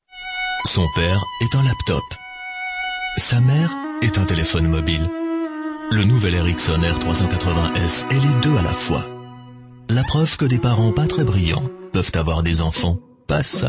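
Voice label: French male voice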